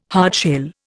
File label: "hardshell", Indian